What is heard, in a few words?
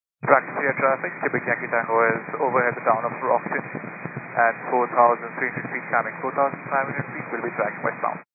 122 925MHz, scanner radio